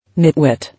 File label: "Nitwit", English